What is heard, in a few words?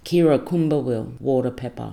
"kira-kumba-wil" water pepper